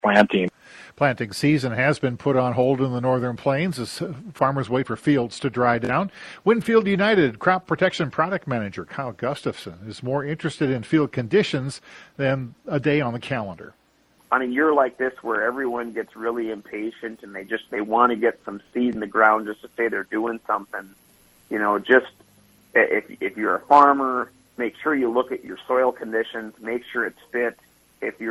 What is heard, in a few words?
air check; WinField United